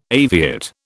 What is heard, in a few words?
"aviate", British